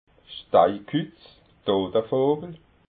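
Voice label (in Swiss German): "steikutz", Alsatian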